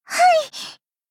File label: shy